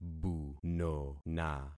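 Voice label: "bunona", concatenated syllables; Affixing experiment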